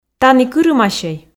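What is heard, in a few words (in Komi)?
тані кырымасьӧй, Komi, female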